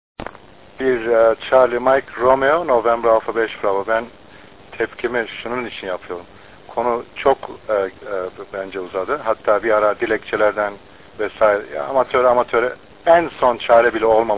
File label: QSO; KONFERANS ODASINA SATASMALAR